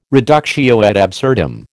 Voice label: "reductio ad absurdum", American